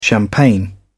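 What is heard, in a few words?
"champagne", British English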